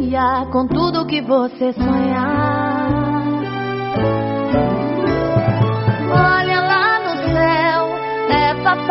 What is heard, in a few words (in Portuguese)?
comercial natal; yamada 2014